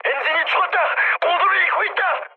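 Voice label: Korean damaged engine mood med; speech; War Thunder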